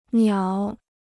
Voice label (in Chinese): "鸟", Chinese